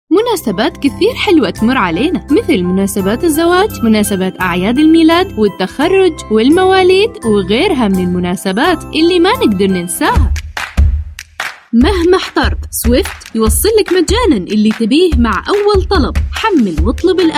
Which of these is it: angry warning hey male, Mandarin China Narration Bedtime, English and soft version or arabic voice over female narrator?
arabic voice over female narrator